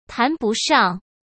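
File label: "谈不上", Mandarin Chinese; hsk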